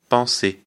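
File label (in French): "penser", French; afrikalan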